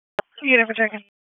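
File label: Raleigh Police Northeast District Dispatch, p25; wake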